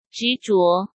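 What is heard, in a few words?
"执着", Mandarin Chinese; chinese-flashcards; hsk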